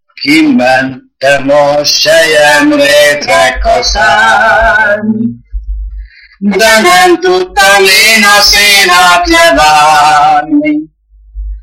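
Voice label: dialect collection; nyelvjaras gyujtesek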